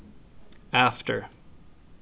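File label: "after", Canadian, male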